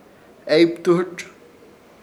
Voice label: "Eybithurz", Ishir Chamacoco; yerno